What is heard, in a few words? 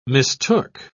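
"mistook", British English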